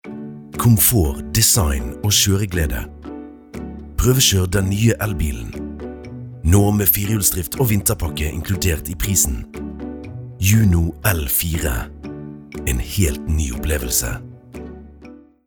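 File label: Norwegian soft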